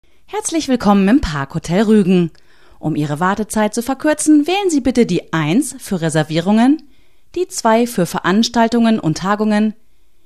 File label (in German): deutsch; parkhotel ruegen